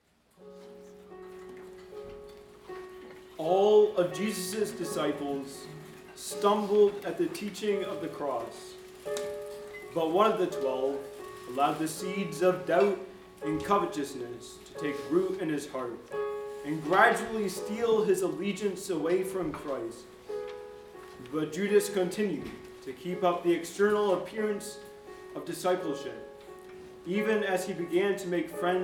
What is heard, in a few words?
Narration; No man can serve two masters